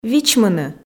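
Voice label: "вичмыны", Komi, female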